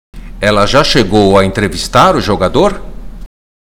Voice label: Portuguese Listening; Portuguese Test